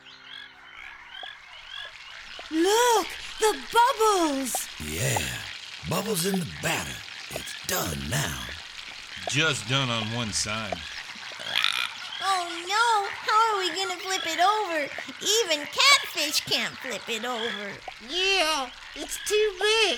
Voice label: Story Narration